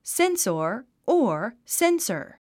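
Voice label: "sensor", English word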